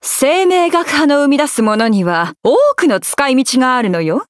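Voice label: start, Japanese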